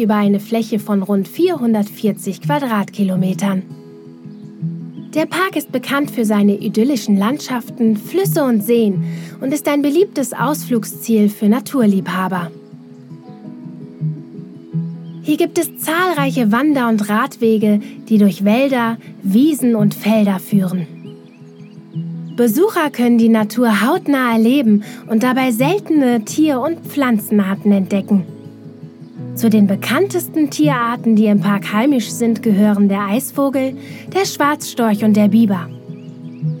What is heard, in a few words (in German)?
Dokumentation Naturpark